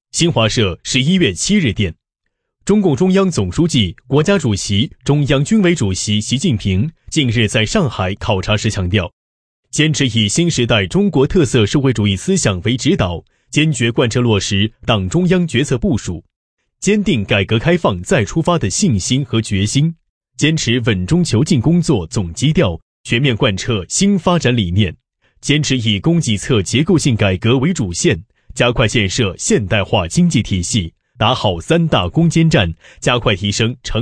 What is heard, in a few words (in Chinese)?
男 新闻; 习近平上海考察